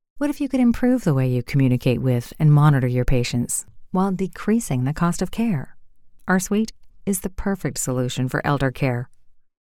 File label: Promo Smooth Calm